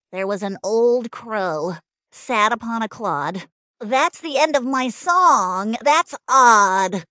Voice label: fem aus; There Was an Old Crow